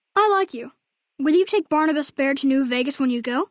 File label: Male child, greeting; Fallout NV